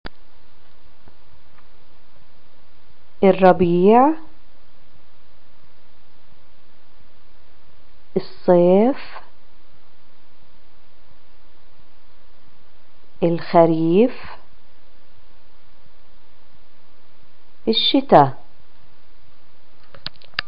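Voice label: seasons, Palestinian Arabic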